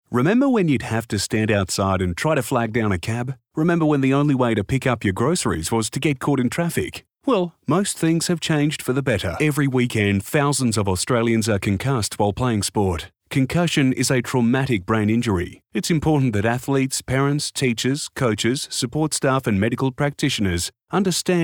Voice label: natural